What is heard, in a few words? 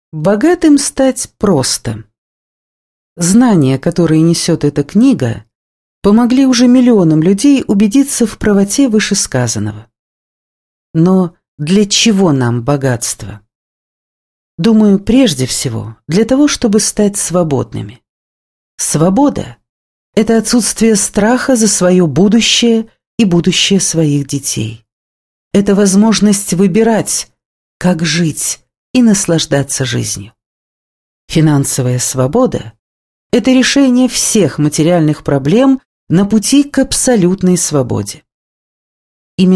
russian female